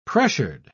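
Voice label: "pressured", British English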